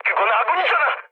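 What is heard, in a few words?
Korean player friendly fire mood low, speech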